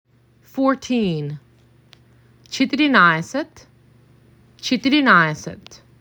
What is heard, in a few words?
MAC 6, macedonian phrasebook